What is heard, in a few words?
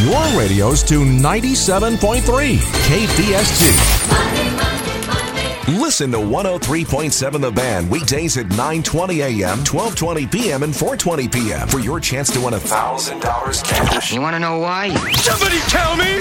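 voice over classic hits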